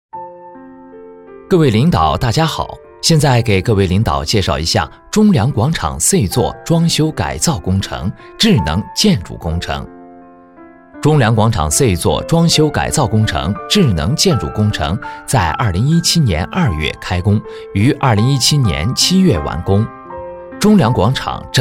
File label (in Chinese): 解说; 中粮广场